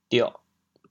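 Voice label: "dioh4", Teochew, chaoyang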